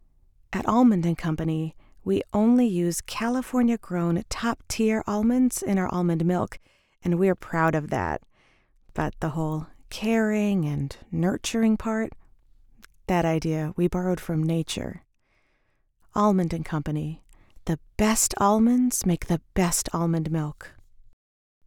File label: Commercial; Almond Milk